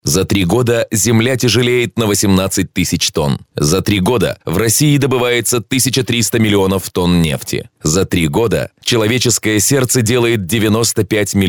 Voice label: RUS